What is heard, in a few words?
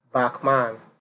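"backman", Nigerian Pidgin, male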